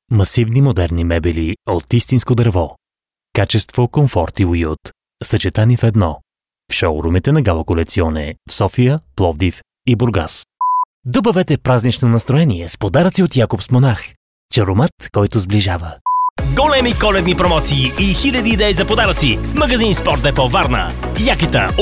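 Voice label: male Bulgarian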